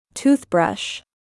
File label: "Toothbrush", English; bathroom